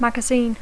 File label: "magazine", English